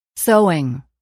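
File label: "sewing", English word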